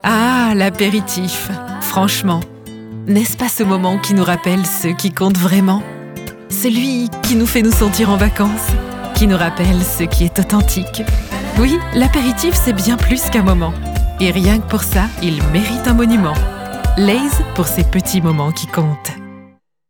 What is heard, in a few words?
TV FR; Lays